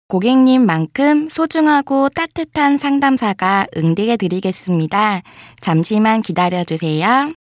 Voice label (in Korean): 상담사 고객님만큼소중하고 따뜻한상담사가 응대해드리겠습니다